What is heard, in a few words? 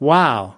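"wow", US English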